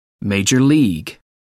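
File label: "minor league", British English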